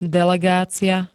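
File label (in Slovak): "delegácia", Slovak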